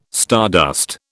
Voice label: "stardust", British